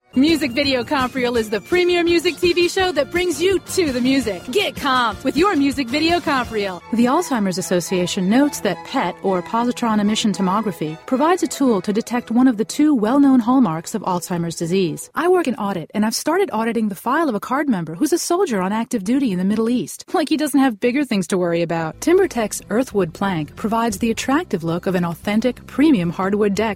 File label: young, female voices for radio commercials